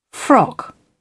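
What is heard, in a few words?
"frock", British English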